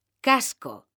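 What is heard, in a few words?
"CASCO", Spanish, female; mekanta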